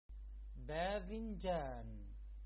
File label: Eggplant in arabic